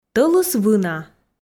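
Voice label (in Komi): "тӧлыс вына", Komi, female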